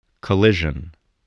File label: "collision", spelling